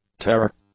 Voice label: "terror", english; nouns